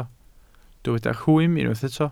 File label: "rhwym", Welsh